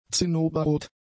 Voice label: "zinnoberrot", German; adjectives